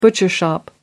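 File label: "bunny rabbit", British English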